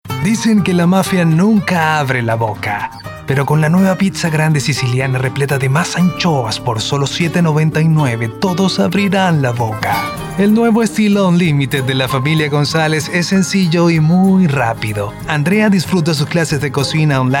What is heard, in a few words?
Spanish; Commercial Demo; Voiceover